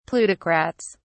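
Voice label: "plutocrats", British English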